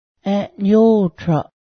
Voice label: mitt, Cayuga; ęˀnyótraˀ